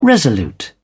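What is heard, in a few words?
"resolute", British English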